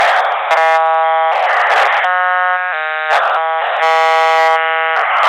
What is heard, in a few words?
Military Communication Loop